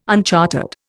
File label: "unchartered", Indian